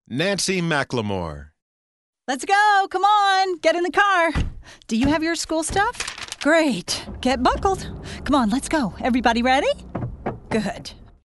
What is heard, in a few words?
MOTHERLY